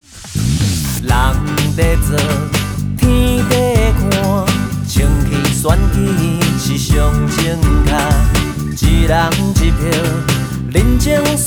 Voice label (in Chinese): 檢舉顧未來廣播 客語